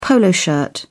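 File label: "polo shirt", British English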